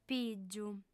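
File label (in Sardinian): "pìgiu", female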